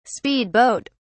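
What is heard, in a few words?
"speed boat", English, British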